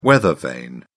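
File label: "weathervane", British English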